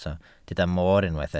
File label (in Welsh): "moor", Welsh